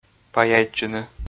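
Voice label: "паяйтчыны", Komi, male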